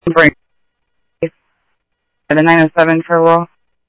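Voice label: Sheriff East County, scanner audio trunk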